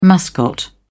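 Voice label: "mascot", British English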